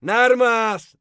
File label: scav mutter, voice; Escape from Tarkov; scav2